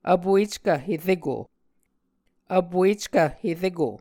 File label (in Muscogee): "vpueckv hereko", muscogee